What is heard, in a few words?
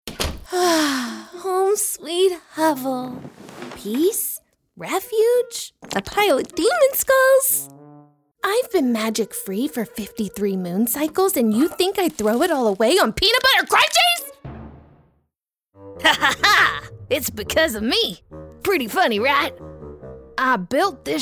Animation